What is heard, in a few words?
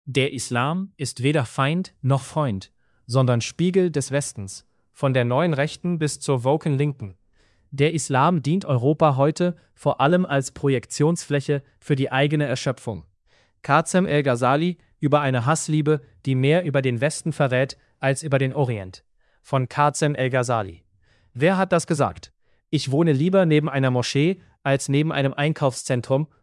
male